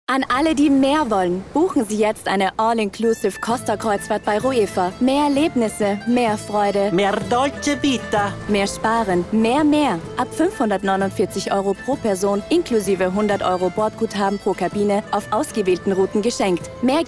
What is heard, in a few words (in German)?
Werbung; Ruefa reisen